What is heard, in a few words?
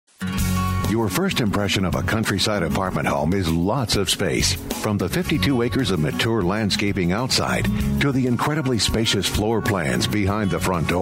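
Phone Hold IVR Male, Deep, Dramatic, Intense; Narration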